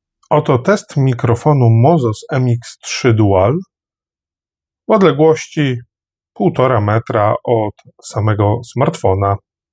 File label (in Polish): probka redukcja szumow; Mozos MX3 Dual